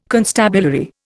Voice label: "constabulary", Indian